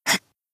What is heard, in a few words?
Battle Shout; Misaki